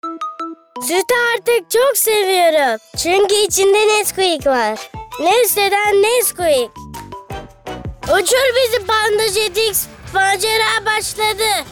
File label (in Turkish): Reklam